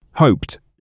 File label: "hoped", English